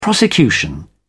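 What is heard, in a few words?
"prosecution", British English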